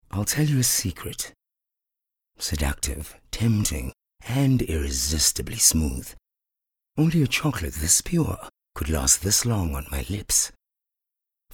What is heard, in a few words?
English Intimate